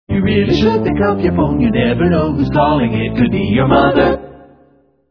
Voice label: your mother, Funny Ringtones

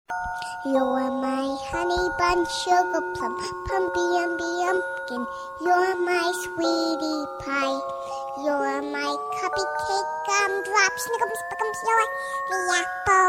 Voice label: Sweet Voice, Children mobile tunes; Funny RingTones

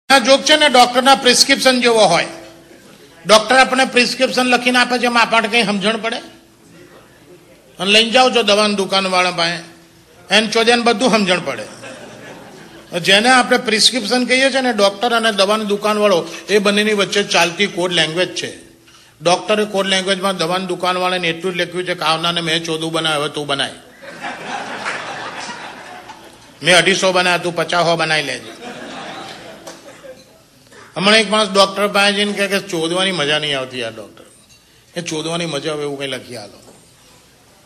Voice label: Jokes, Gujarati; Gujarati Ringtones

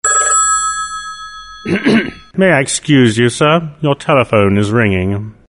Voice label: Excuse You Sir, funny ringtone

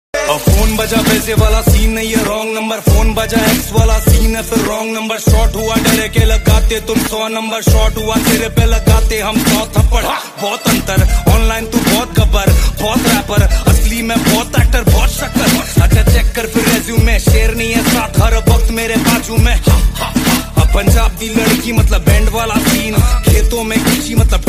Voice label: Punjabi Ladki Matlab Band Wala Scene Ringtone; Tik Tok Ringtones

